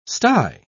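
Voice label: "stye", English, uk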